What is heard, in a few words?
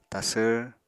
"tasvr", apatani